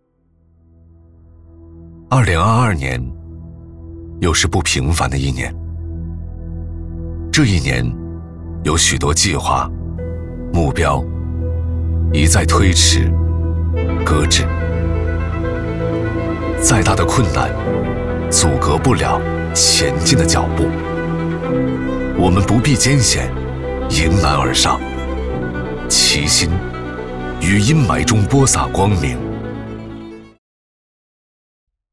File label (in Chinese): 旁白 沉稳走心